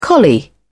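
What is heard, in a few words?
"collie", British English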